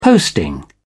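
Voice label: "posting", British English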